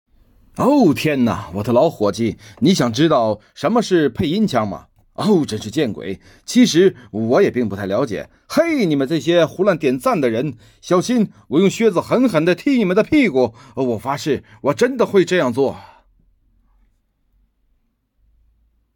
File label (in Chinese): 配音